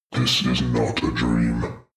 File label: demon dark voice thick speak not a dream